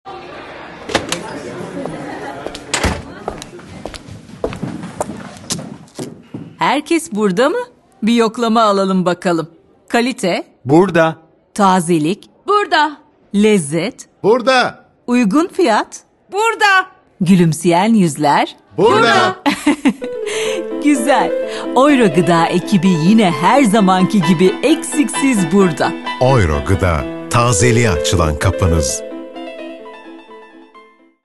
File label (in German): radiospot tuerkisch